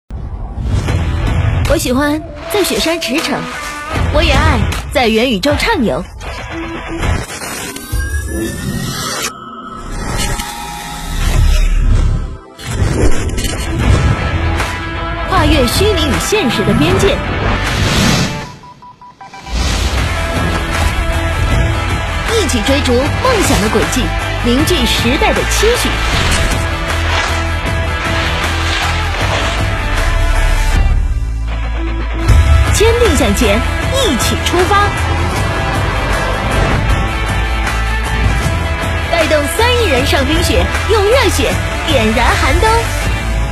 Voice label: 女 广告 年轻活力; 2022冬奥会; 谷爱凌

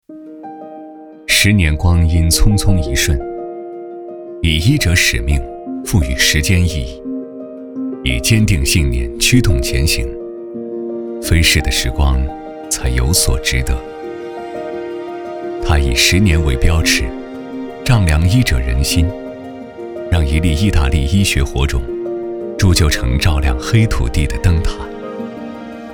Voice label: 男 宣传片 访谈; 公益宣传配音; 国语配音

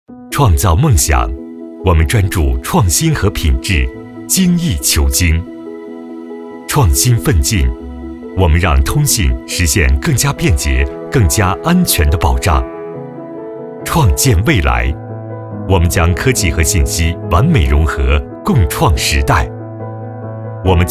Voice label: 男, 宣传片科技感, 通信科技

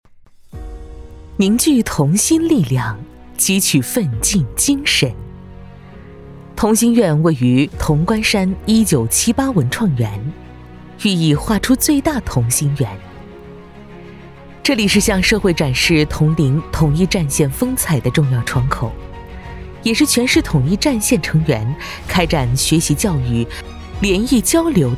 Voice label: 女 专题 传统, 国语配音; 统战之家

